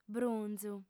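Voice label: "brunzu", female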